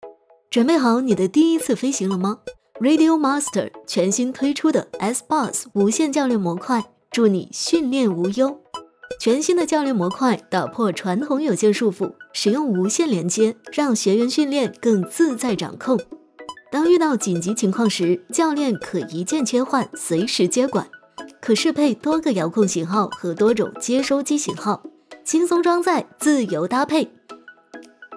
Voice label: 大气

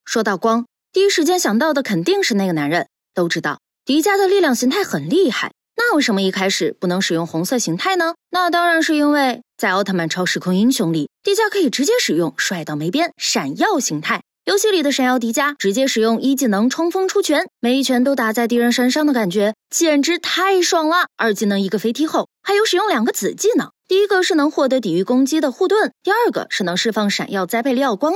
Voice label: 游戏解说短视频配音

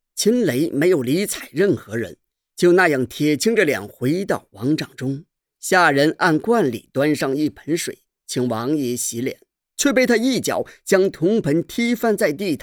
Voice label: 古装剧试音

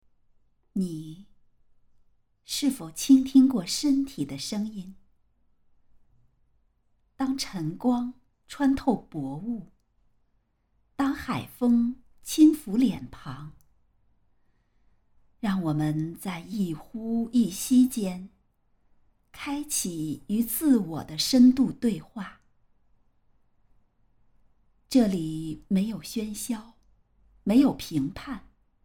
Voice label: 宣传瑜伽短视频配音

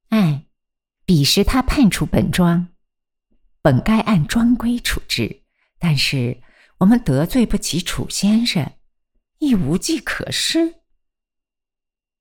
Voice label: 声展角色音

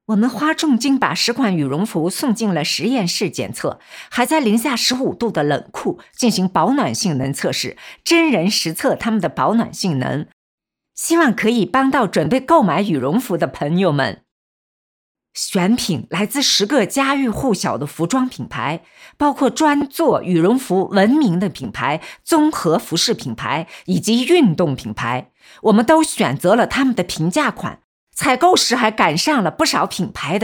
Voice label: 测评视频试音

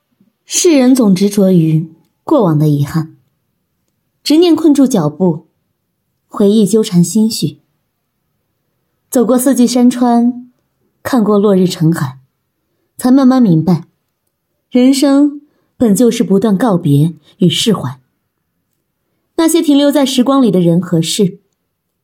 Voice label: 散文旁白

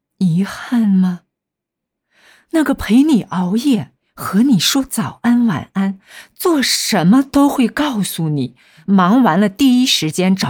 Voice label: 独白文案试音

